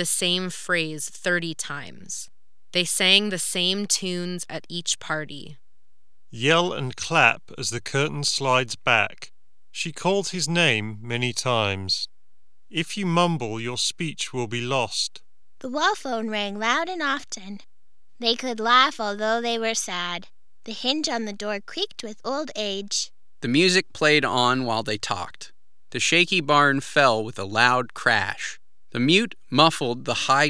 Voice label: Steelseries Arctis Nova Pro Ideal microphone sample